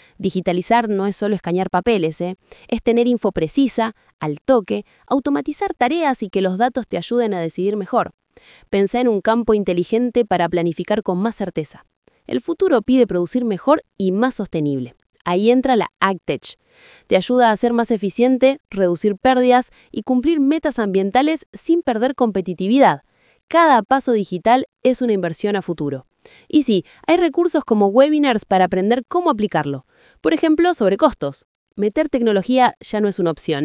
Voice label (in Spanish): Podcast Voz argentina; ganaderia; comunidad agro